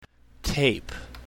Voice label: "tape", english